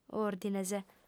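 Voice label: "òrdines", lingua sarda, female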